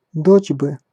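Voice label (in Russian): "дочь бы", Russian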